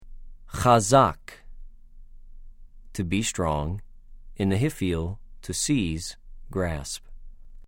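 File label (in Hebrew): "חָזַק", Hebrew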